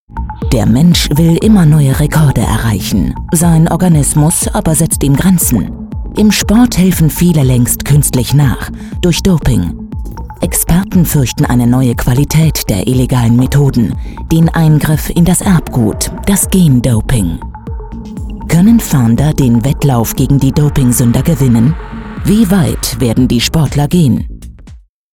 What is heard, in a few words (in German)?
doku wissen